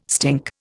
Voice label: "stink", Indian

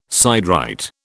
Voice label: "siderite", British

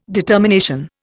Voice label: "determination", Indian

